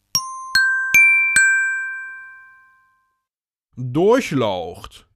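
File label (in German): "Durchlaucht", German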